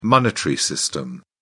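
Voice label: "monetary system", English, British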